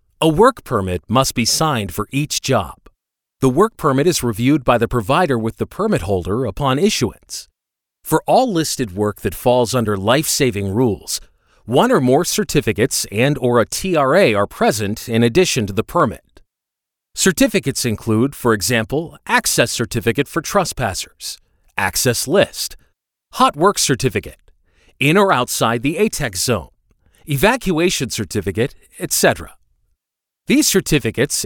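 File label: E-Learning, English US; Schulungsvideos; Profi Publikum; NATIVE PROFISPRECHER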